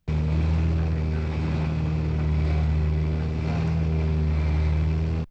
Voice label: xsynth speech car plane